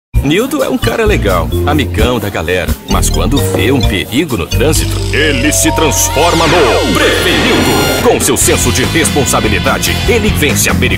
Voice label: Commercials Meddle Age; Detran Es